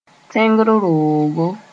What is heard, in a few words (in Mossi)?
"tẽngr roogo", Moore